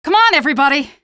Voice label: squad follow, female; Half-Life 2